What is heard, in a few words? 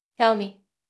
Voice Acting es